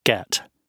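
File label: "get", British English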